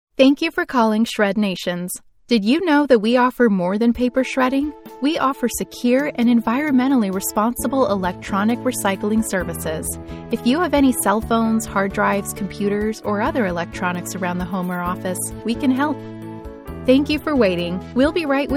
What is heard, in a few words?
On Hold; ShredNations